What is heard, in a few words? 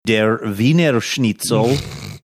der Wiener Schnitzel in funny German accent